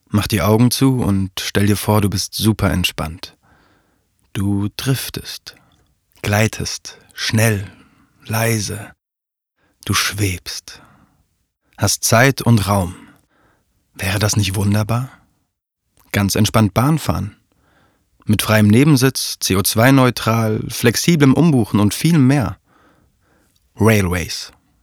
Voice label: Werbung railways

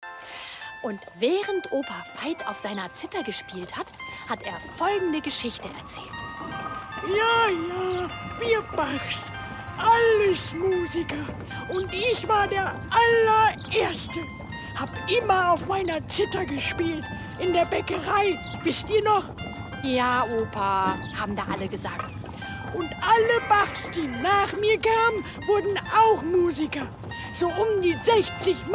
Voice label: hoerbuch erzaehlung

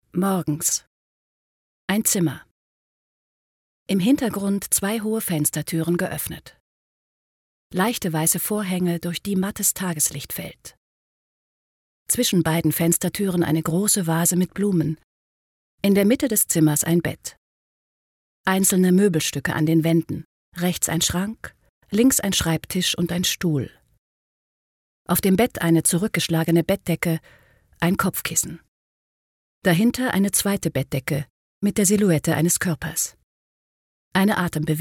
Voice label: Audiodeskription

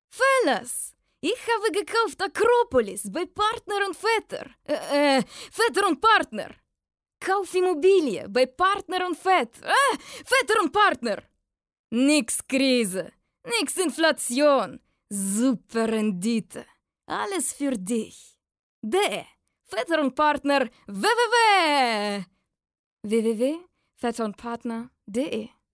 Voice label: Radio Russischer Akzent; LOFT VOICES

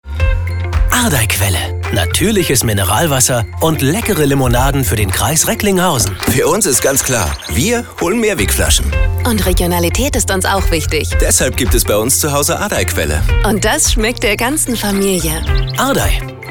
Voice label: Werbung Funk, Commercial, German; Ardey Quelle; Adrey Quelle

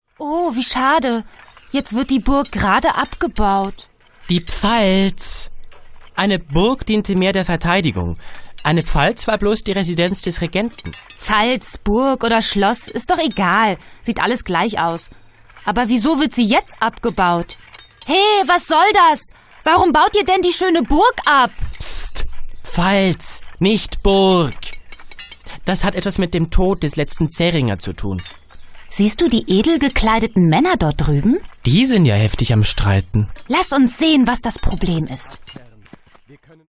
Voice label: Hoerspiel fuer Kinder

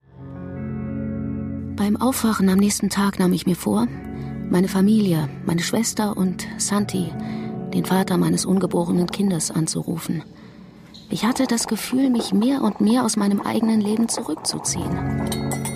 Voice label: Hörspiel

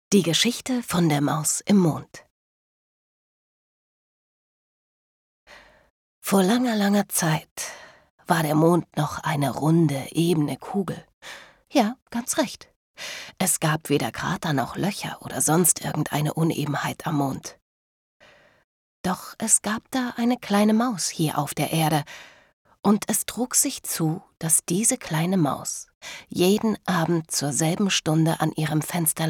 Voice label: Kurzgeschichte Kinder, Audiobook (Hörbuch), German; Die Maus im Mond

